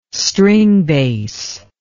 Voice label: "stringbass", English